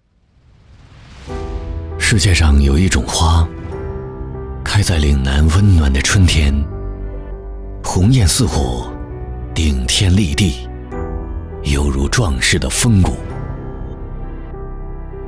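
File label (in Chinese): 专题沉稳大气旁白开篇自然讲述正文大气磁性; 中烟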